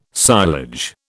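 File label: "silage", British English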